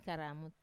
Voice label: "karamut", to scratch, wamesa